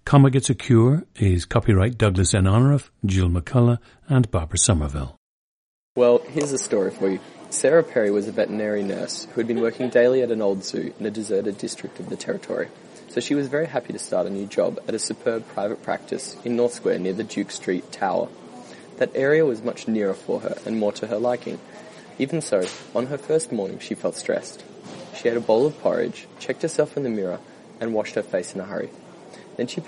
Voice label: australia